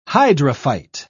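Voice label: "hydrophyte", British English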